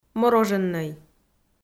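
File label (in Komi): "мороженнӧй", Komi, female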